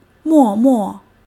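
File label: "mòmò", Chinese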